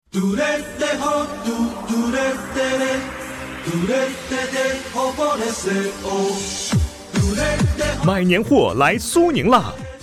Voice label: 促销广告; 苏宁易购

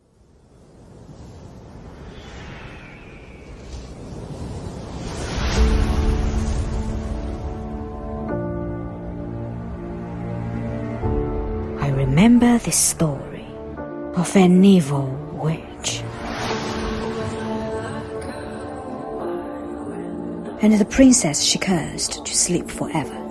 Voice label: 大气沉稳神秘预告片

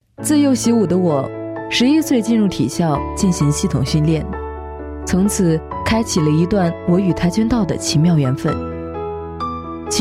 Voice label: 独白第一人称; 体校

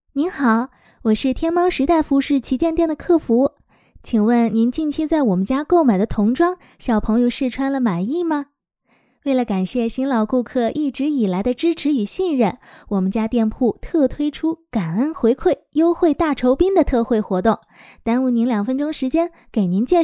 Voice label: 电话语音活泼风格温柔风格

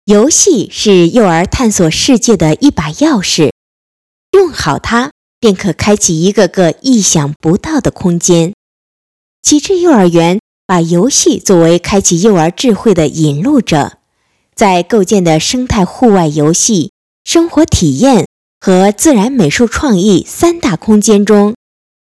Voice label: 幼儿园试音

